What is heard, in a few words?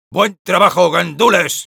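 Sniper jeers, Spanish; ¡Buen trabajo, gandules!; Respuestas del Sniper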